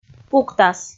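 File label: "пуктас", Komi, female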